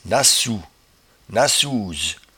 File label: "nacou", patois jura